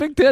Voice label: voice, asian voices